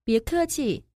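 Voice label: "biekeqi", Mandarin Chinese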